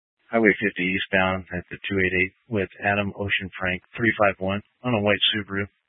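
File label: Troop 2A Dispatch, scanner audio recording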